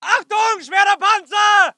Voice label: voice ger infantry; Red Orchestra 41-45